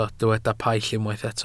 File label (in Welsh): "paill", Welsh